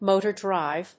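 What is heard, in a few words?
"motor drive", English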